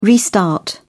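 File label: "restart", British English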